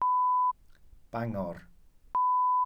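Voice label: "bangor", Welsh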